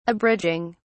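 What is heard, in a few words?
"abridging", English, British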